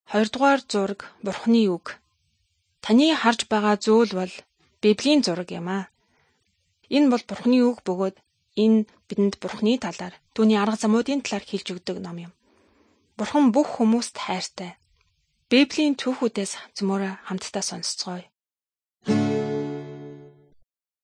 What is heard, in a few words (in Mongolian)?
good news mongolian